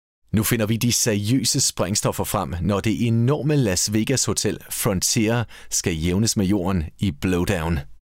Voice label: danish tv shows